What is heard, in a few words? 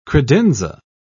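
"credenza", British English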